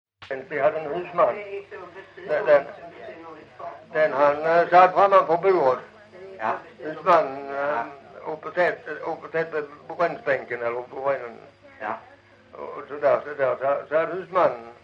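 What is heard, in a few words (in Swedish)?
Lilla Harrie Skåne, Svenska sagor dialekter; Folkminnen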